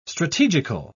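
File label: "strategical", British English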